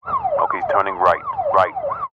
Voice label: emergency police radio male officer in car sirens ok turning right right